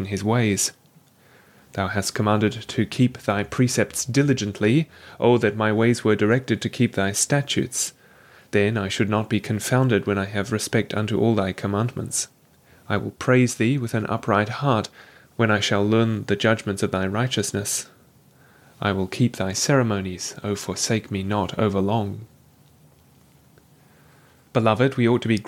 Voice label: Voice Test No Noise